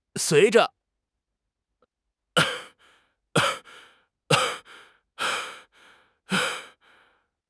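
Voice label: "sui2 zhe5", Mandarin Chinese; HSK4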